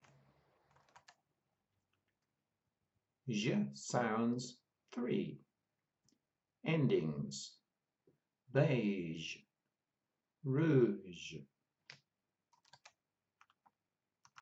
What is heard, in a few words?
Zh sounds, pronunciation of australian english